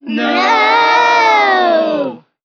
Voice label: no, children; Voice; expletives